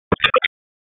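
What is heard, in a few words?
Police Spec Ops Tac, radio; Raleigh; Tac 4; p25; wake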